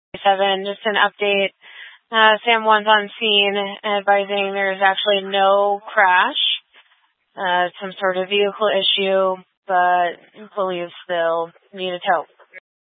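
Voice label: Incident Tac 3, Scanner audio recording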